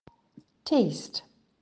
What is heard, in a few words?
"taste", English; the five senses; les cinq sens